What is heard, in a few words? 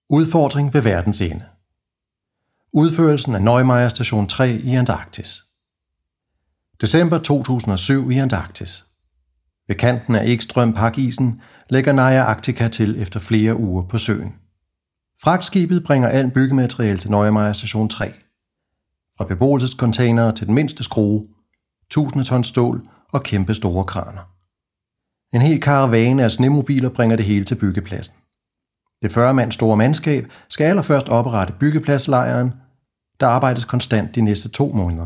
dk voiceover; sprecher; daenisch